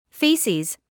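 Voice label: "faeces", British English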